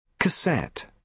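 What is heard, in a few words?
"cassette", British English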